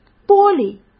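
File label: "玻璃", Mandarin Chinese; chinese-flashcards; hsk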